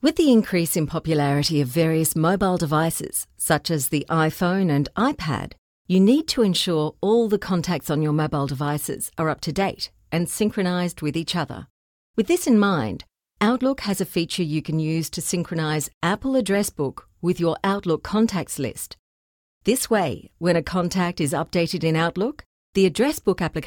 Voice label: E Learning